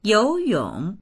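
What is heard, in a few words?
"you2yong3", Mandarin Chinese; 游泳; 水泳する; dictionary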